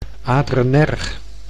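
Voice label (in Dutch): "adrenerg", Dutch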